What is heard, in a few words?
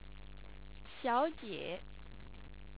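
"xiaojie", Chinese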